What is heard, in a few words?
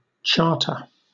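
"charter", British English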